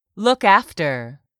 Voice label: "look after", English; 3000 words

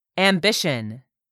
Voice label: "AMBITION", english; 3000 words

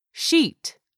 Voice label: "SHEET", english; 3000 words

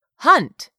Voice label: "hunt", English; 3000 words

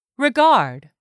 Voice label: "regard", english; 3000 words

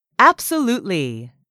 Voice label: "absolutely", English; 3000 words

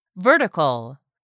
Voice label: "vertical", English word; 3000 words

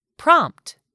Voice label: "PROMPT", English; 3000 words

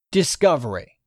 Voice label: "DISCOVERY", english; 3000 words

